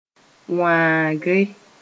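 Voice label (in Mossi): "wãagre", Mooré; cutting a thing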